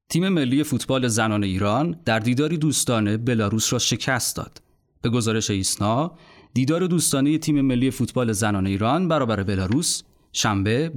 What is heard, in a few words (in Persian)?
نمونه صدای سوم خبر